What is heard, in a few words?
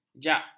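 "jag", Malay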